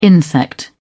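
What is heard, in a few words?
"insect", British English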